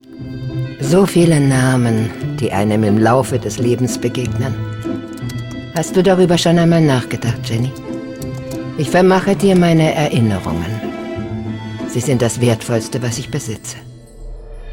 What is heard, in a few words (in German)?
Trailer, frauen; Das rote Adressbuch